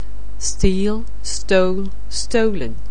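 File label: "steal", English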